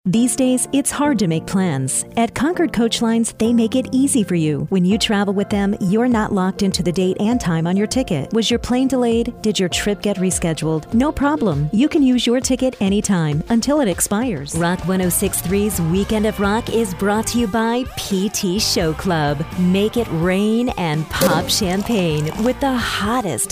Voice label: Commercial, sincere, sexy, smooth, friendly, upbeat; Phone Hold/IVR